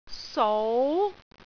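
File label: "sou2", Cantonese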